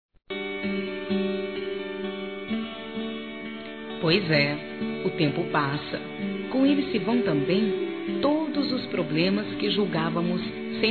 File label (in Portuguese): AMIZADE F, Telemensagens